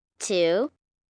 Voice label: Numbers Two, 1 child, girl counting 'two; Talking